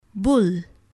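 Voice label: "bull", English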